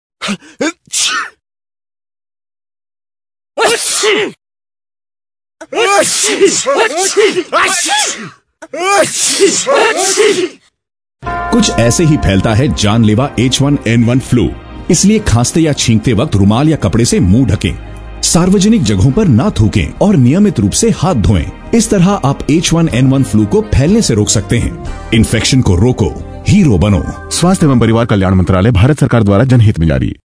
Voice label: Hindi radio sneeze